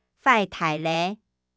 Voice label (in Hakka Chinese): 壞蹄哩, 客語